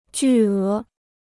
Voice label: "巨额", Chinese

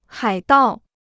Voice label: "海盗", Chinese

